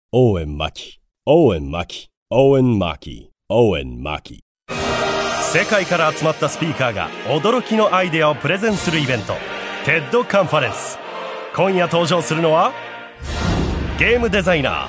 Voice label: male, en; nhk

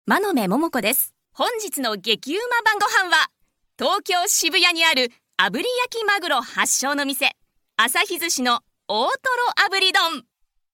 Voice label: female tv program

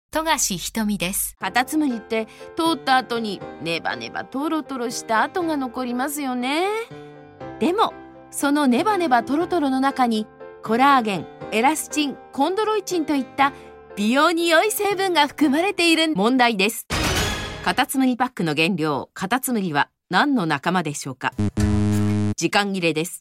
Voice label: female informercial

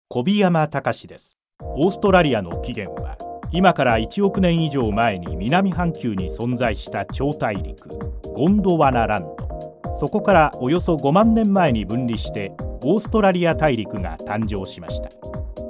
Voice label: male news

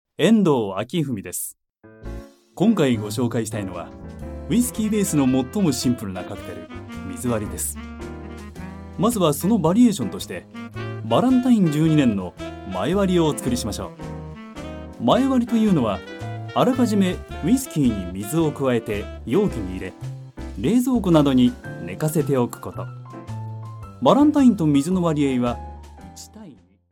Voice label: male web program en

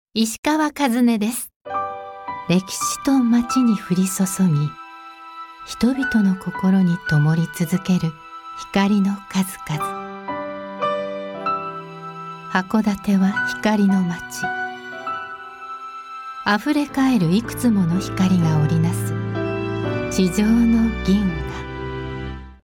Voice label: female city promotion en

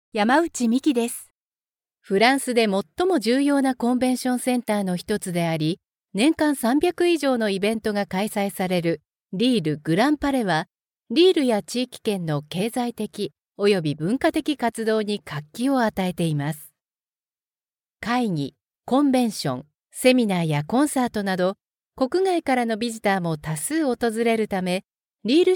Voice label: female, City Tour, en; Lille

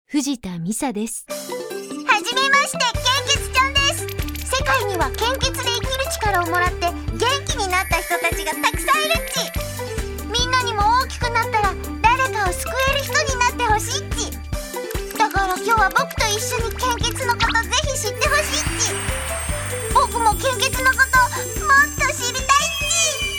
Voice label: female character

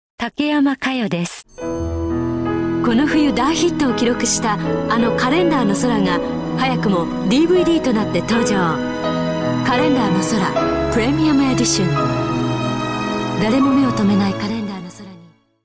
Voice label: female tv spot

